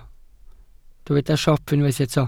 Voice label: "siop", Welsh

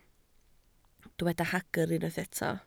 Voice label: "hagr", Welsh

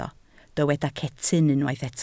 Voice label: "cetyn", Welsh

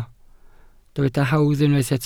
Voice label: "hawdd", Welsh

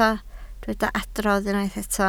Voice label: "adrodd", Welsh

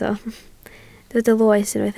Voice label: "loes", Welsh

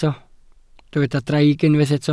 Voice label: "draig", Welsh

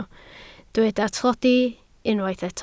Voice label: "tlodi", Welsh

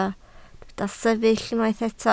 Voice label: "sefyll", Welsh

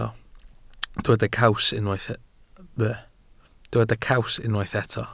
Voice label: "caws", welsh

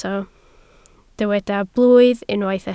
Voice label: "blwydd", Welsh

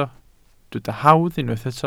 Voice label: "hawdd", Welsh

